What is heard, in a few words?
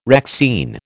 "Rexine", American